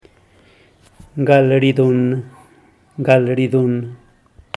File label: "gal ridunn", zapoteco teotitlan; invitar